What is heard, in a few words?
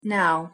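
pronunciation "now", English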